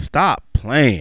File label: "stop playin", HipHop Vox